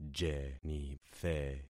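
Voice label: "jenithe", concatenated syllables; Affixing experiment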